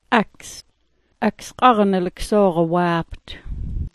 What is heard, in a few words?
"aksogn", smalgyax